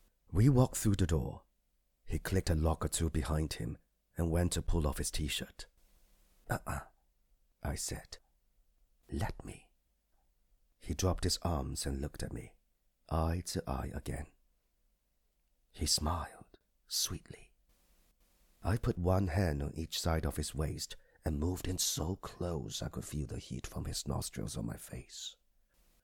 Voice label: Erotic Sample